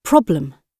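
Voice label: "problem", British English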